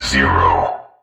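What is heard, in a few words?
"zero", male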